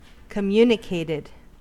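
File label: "communicated", US English; pronunciations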